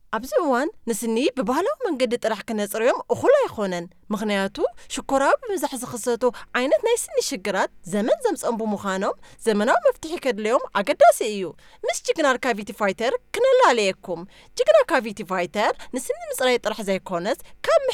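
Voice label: Tigrinya, female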